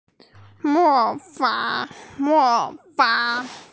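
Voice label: "imitate", hearing impaired; mo2fang3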